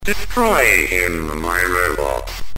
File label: destroy, Commodore64 speech; Impossible mission; Commodore64 speech pack variations